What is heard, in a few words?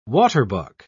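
"waterbuck", British English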